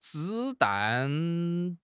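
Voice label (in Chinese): "子弹", Chinese; HSK6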